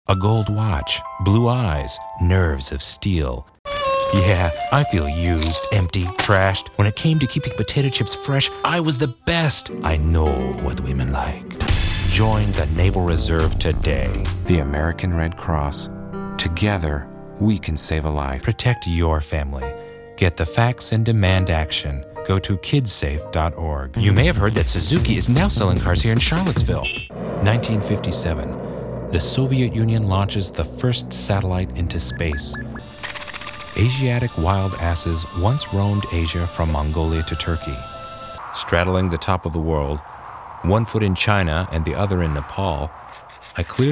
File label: English, english males